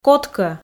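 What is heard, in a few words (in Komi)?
"кодкӧ", Komi, female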